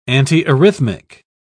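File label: "antiarrhythmic", English, British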